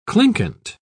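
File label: "clinquant", British English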